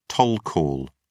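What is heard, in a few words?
"toll call", British English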